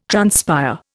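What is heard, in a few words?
"transpire", Indian English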